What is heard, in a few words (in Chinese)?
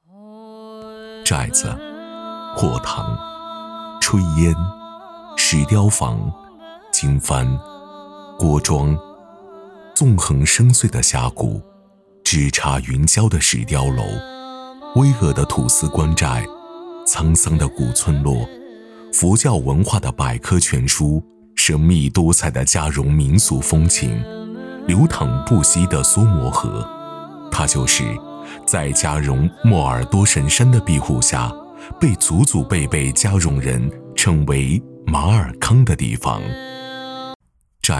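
男【纪录片】; 秘境·马尔康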